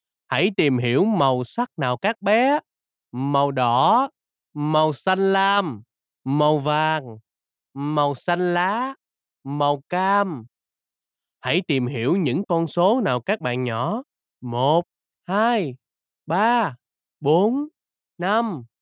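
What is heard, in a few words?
Vietnamese Vietnam male narrative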